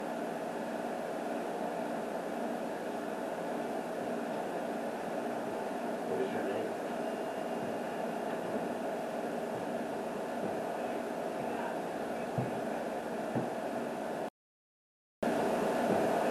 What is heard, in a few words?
basement whisper; everyones entitled to one good scare